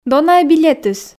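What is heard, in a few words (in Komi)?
дона-ӧ билетыс, Komi, female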